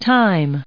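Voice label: "time", English, us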